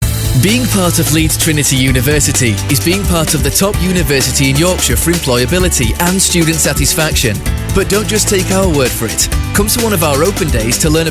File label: voiceover radio commercial; leeds trinity university